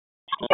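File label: Public Safety Tactical, p25; wake p25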